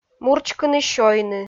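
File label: "мурчкыны-сёйны", Komi, female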